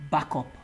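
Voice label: "backup", Nigerian Pidgin, male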